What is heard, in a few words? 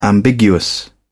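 "ambiguous", English, British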